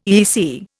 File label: "EEC", Indian